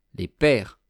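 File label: "les peres", French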